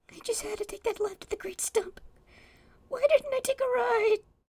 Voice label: Voices Female Beggings; a dump in the dark 2018 gamerip pc